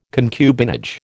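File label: "concubinage", American English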